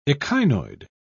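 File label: "echinoid", British English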